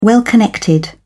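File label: "well-connected", British English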